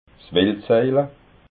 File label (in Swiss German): "wildsaila", alsace